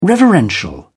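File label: "reverential", British English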